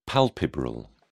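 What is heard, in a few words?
"palpebral", British English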